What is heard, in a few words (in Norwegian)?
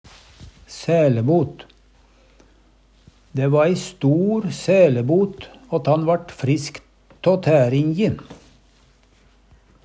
"saelebot", Numedalsmål